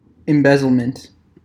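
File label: "embezzlement", US English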